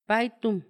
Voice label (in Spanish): "todo"